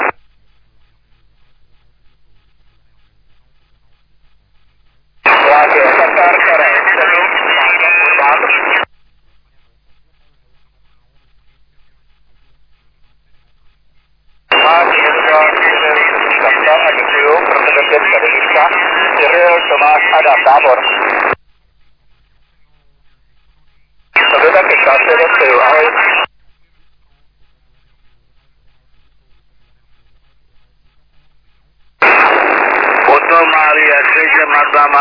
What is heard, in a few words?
LSB, 35MHz; SSB-LIGA; SSB-Liga-03-2024